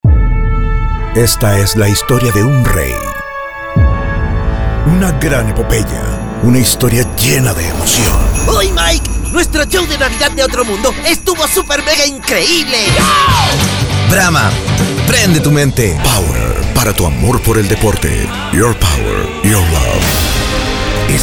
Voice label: Outstanding Commercial Demo Best Spanish Voiceover